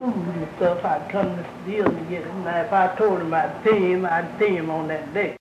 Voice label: Oral history with 84 year old black female Joiner Arkansas, american english dialect